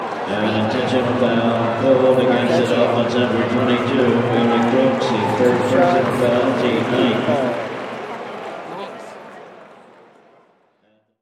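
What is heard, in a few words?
Arena Announcement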